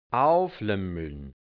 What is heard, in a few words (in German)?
"auflimmln", hianzisch; auflümmeln; mit aufgestützten Armen sitzen